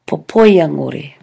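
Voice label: "popoiangore", Māori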